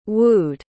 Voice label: "wooed", pronounce